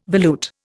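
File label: "volute", Indian English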